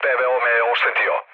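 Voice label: Serbian damaged aa mood low, speech; War Thunder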